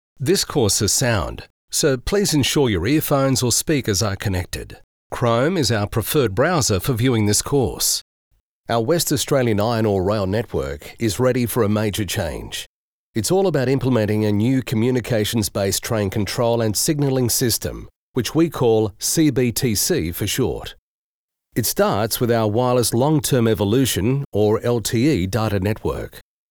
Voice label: Explainer